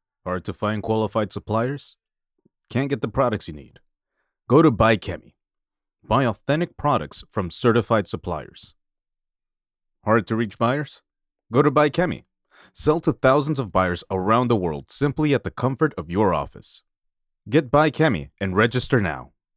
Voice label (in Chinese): 化学材料提供商广告英语配音